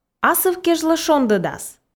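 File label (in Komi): "асыв кежлӧ шондӧдас", female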